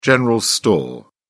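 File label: "general store", British English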